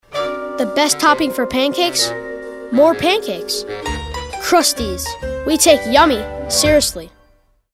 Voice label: Pancakes Friendly